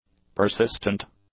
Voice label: "persistent", english; adjectives

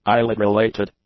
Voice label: "eyelid-related", english; adjectives

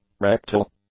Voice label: "rectal", English; adjectives

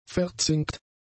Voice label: "verzinkt", German; adjectives

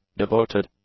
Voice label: "devoted", english; adjectives

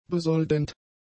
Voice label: "besoldend", german; adjectives

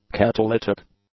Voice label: "catalytic", English; adjectives

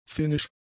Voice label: "finnisch", German; adjectives

